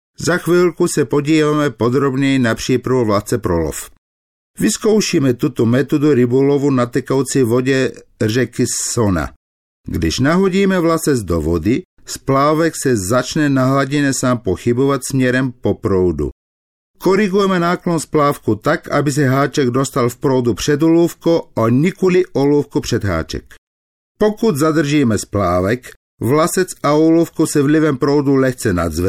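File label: czech male narration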